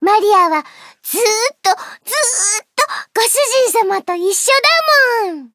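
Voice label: info, Japanese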